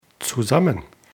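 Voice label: "zusammen", German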